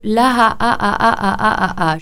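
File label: "A.A.A.A.A.A.", French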